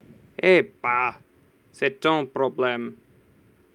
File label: voice message